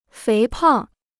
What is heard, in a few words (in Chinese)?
"肥胖", Chinese